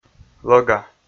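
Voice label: "лӧга", Komi, male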